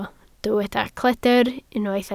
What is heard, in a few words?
"cledr", Welsh